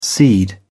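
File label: "seed", British English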